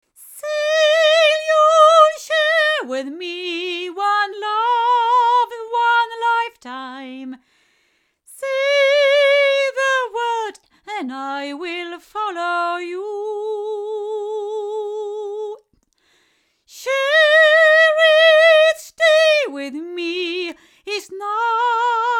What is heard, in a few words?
C5000 female, microphones; JJLabs